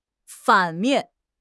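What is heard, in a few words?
"反面", Mandarin Chinese; HSK; HSK6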